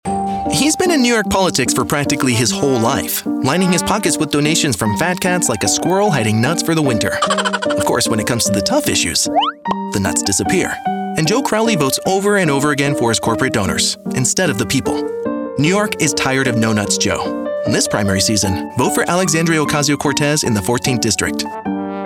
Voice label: Political Attack Humor; AOC